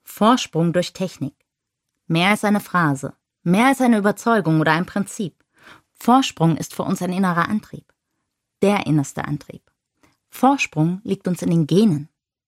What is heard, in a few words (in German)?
Audio Werbung